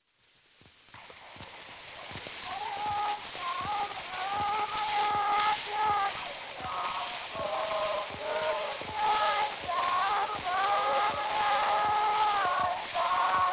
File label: Zulu Recordings; Father Franz Mayr